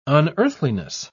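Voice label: "unearthliness", British English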